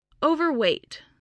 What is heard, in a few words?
"overweight", English voice